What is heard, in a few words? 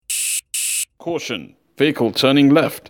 caution vehicle turning left with white noise